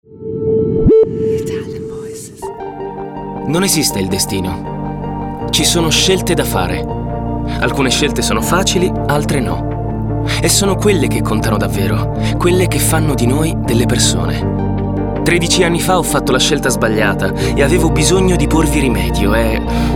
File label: ITALIAN VOICES, male voices; Naked Reel